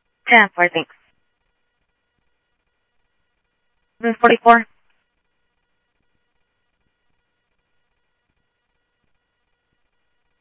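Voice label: Sheriff East County, scanner audio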